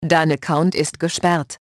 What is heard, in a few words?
Account Locked, de; EFI Audio